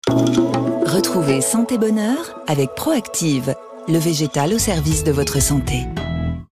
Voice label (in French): billboard naturelle posee; PROACTIV